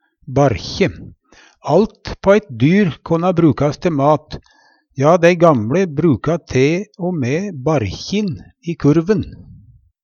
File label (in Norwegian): barkje, Numedalsmål; Alt på eit slakt konna brukast te mat, ja, dei gamLe bruka te o mæ barkjin i kurven.